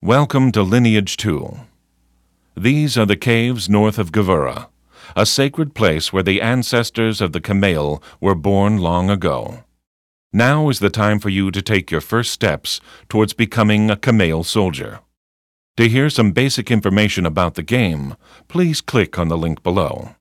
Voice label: tutorial voice; lineage2

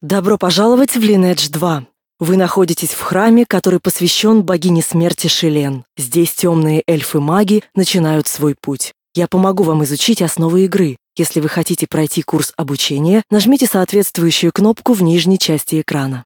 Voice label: tutorial voice, ru; lineage2

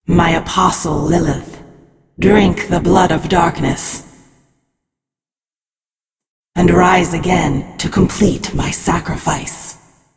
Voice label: cinematic voice; lineage2

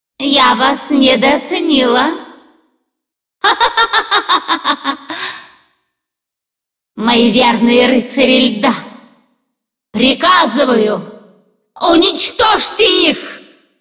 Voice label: cinematic voice, ru; lineage2

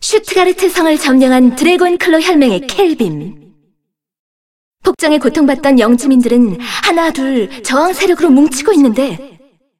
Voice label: cinematic voice; lineage2

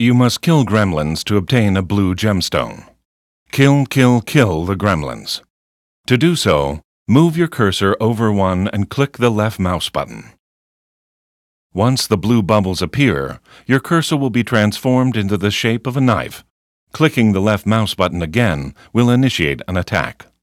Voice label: tutorial voice; lineage2

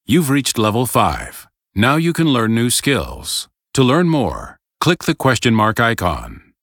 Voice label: tutorial voice es; lineage2

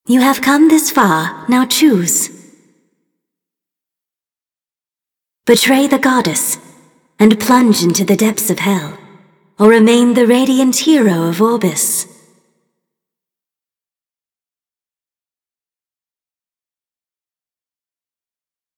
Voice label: cinematic voice; lineage2

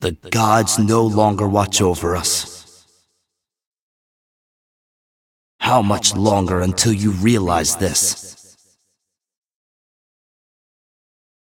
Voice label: cinematic voice; lineage2

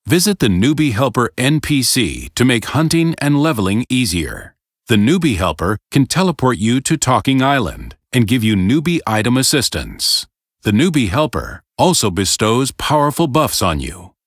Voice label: tutorial voice; lineage2

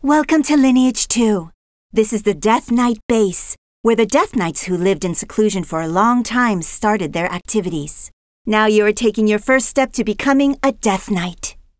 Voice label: tutorial voice, es; lineage2

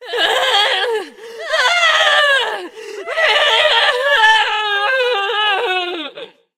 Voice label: cinematic voice, ru; lineage2

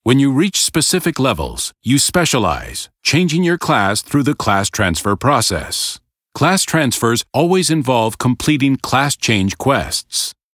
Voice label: tutorial voice; lineage2

